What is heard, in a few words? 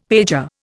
"pager", Indian; Dictionary